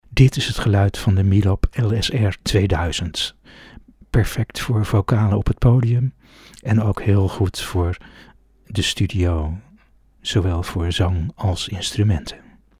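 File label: Milab LSR 2000, Dutch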